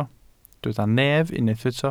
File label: "nef", Welsh